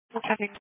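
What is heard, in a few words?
Police Patrol (Dispatch)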